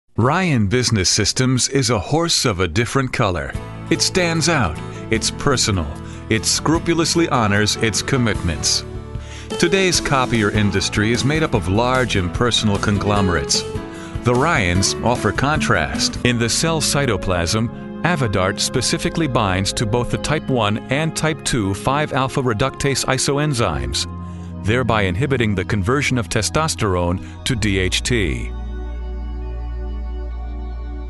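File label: english american male voice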